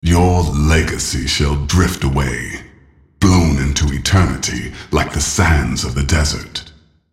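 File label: taunt, English; Nasus; Character Voices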